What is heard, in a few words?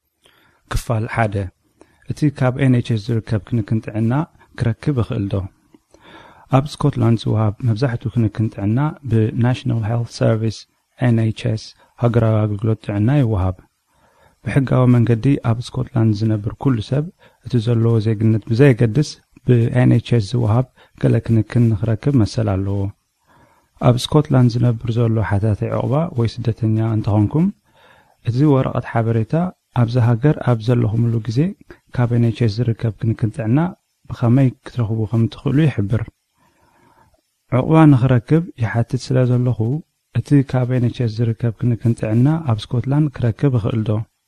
Tigrinya M Narration